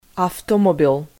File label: "avtomobil", Macedonian